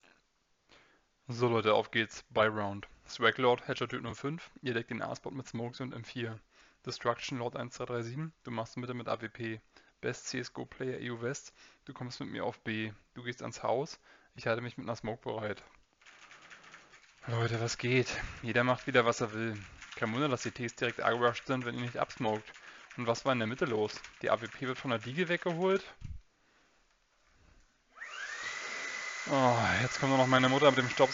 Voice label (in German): Testaufnahme Funk; Roccat Syn Air Pro